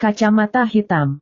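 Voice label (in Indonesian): "kacamata hitam", Indonesian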